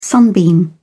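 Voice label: "sunbeam", British English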